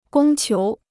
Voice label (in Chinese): "供求", Chinese